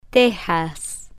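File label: "texas", Spanish, female, slow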